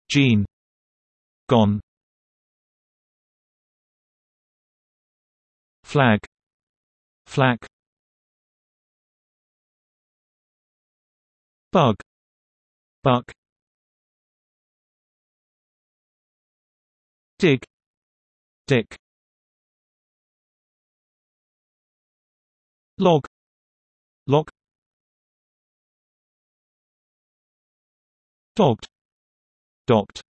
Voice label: g UK, Englisch richtig aussprechen; der buchstabe g